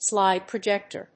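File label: "SLIDE PROJECTOR", English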